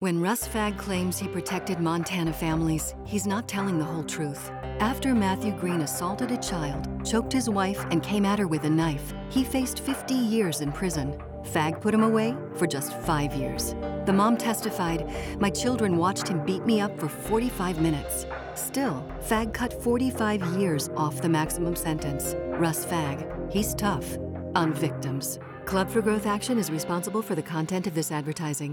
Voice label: TV VO